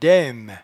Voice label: "daime", patois jura